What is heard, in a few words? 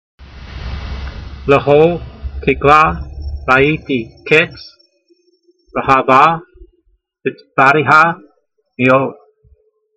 voice, hebrew language; Psalm 119:96; lehol teek'lah ra'ee'tee kayts, reha'vah meetsvateha meod